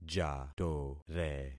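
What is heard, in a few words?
"jatore", concatenated syllables; Affixing experiment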